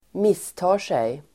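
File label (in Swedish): "misstar sig", Swedish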